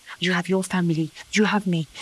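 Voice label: voice acting, es, female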